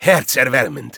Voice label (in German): Spy cheers, de